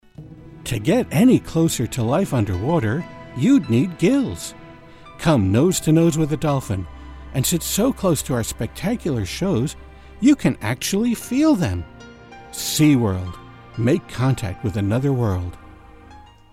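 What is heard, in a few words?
Commercial; Sea World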